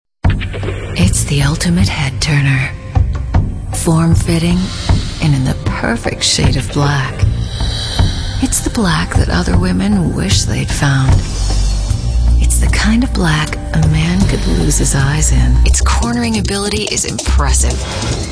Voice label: female 30s 40s